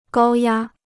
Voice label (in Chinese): "高压", Chinese